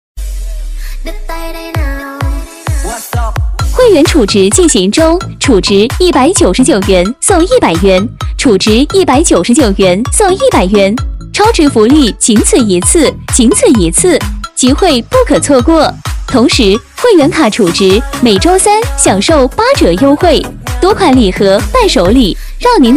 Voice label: 温柔甜美女 会员储值送优惠; 激情力度

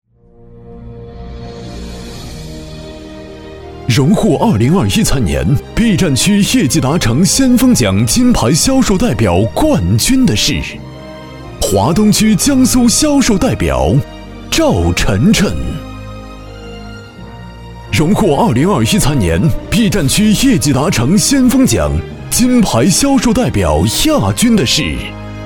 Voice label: 男 企业年会颁奖 大气浑厚有感情

